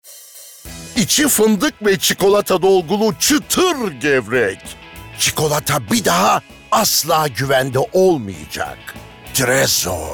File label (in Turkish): Komik